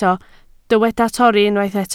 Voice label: "torri", Welsh